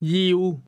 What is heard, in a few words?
"want", cantonese